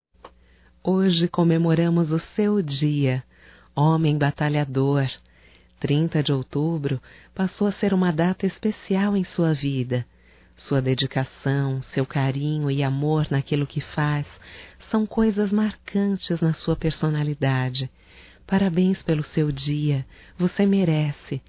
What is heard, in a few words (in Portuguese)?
Dia Balconista Feminino Feminino; Datas Mistas; Telemensagens 2017